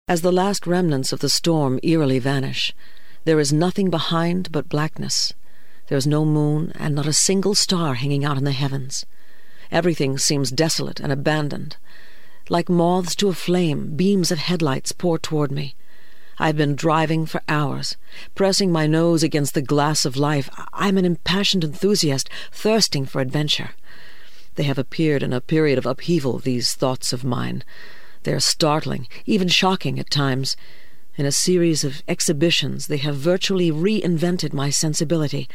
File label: Audiobook